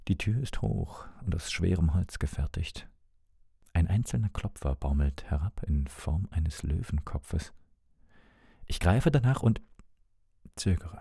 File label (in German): Voicesample Hörbuch; MIT DEM ALTER WIRD MAN SCHÖNER